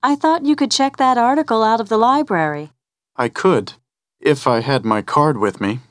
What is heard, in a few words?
dialog